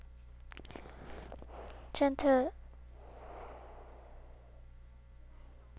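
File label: "gentle", spoken word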